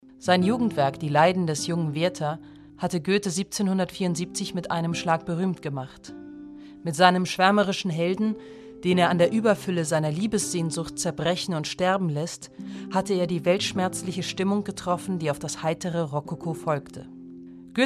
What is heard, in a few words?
audio guide; Museum für Kunst und Gewerbe Hamburg